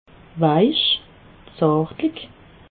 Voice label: "weich", alsace

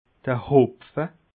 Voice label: "hopfe", alsace

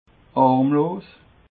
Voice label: "harmlos", alsace

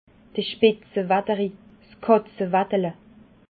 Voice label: "spitzewaderi", alsace

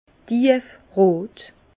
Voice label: "tiefrot", Bas Rhin; vin; rubis

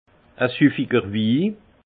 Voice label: "sufficherwi", alsace; vin

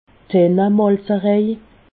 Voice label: "tennemalzerej", alsace

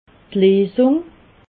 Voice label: "leesung", alsace

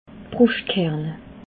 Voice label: "bruschtkerne", alsace

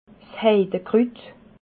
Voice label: "heidekrut", alsace

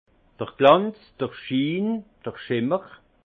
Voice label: "glanz", alsace; vin; brillance

